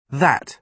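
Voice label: "that", British English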